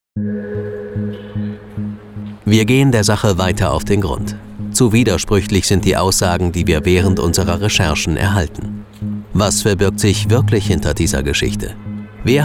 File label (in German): sprecher investigativ; die grosse vertuschung